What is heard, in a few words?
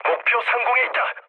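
Korean near waypoint mood low; speech; War Thunder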